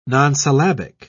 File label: "nonsyllabic", en uk